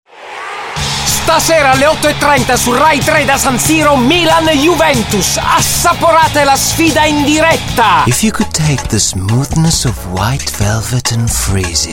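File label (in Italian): Italian, General; GoLocalise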